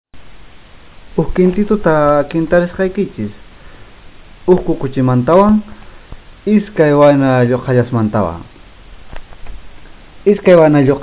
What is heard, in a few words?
guarani; indigene sprachen am lai